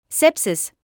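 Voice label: "sepsis", British English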